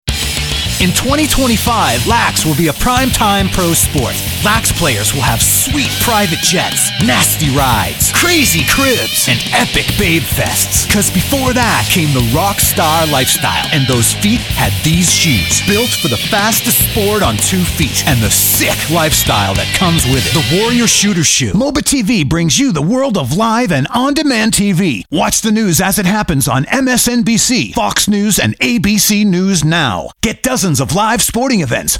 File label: promo